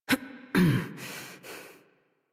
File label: Horse Dismount Adult; Warframe; Duviri